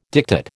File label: "diktat", American